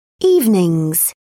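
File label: "evenings", British English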